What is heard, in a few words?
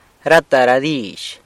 "rata ra dizh", San Lucas Quiavini; all the words